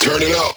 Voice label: Vocal Phrase Male Turn It Up; bass house; fx vocals